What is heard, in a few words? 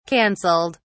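"cancelled", British English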